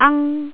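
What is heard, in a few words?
"ang1", Cantonese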